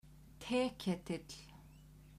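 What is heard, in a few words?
"teketill", Icelandic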